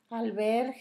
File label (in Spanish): chícharo, Zapoteco, San Pedro Mártir; Comestibles; INALI